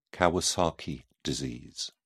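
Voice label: "kawasaki disease", British English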